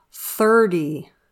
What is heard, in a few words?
"thirty", English